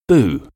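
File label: "boo", English; to boo; wygwizdać (wyrazić dezaprobatę)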